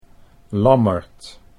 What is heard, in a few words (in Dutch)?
"Lammert", Dutch